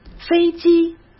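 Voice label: "飞机", Mandarin Chinese; chinese flashcards; hsk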